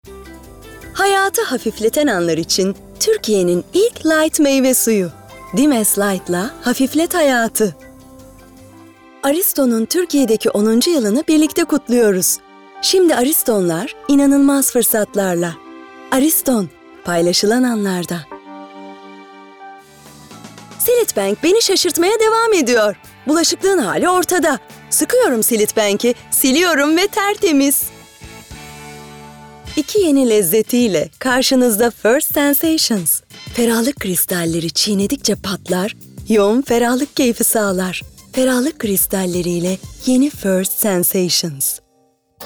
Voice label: Reklam

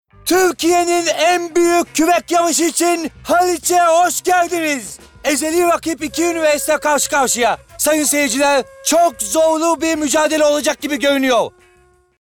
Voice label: ANIMASYON

